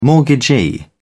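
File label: "mortgagee", British English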